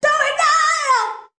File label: diva do it now, cheesy divas